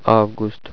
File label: "august", russian